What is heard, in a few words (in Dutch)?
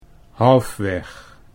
"Halfweg", Dutch